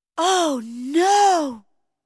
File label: Oh No...! (female)